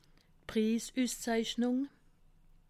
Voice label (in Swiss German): "prissusszeichnung", alsace